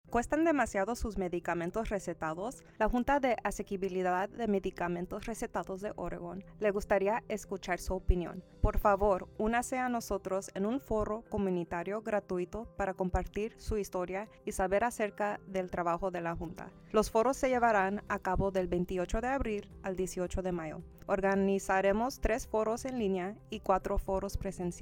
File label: recording Spanish